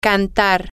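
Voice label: to sing, spanish, female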